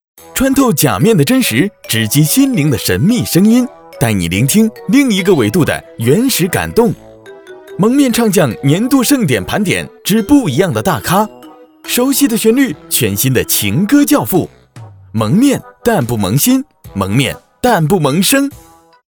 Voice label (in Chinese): 男 片花